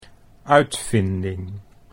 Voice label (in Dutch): "uitvinding", Dutch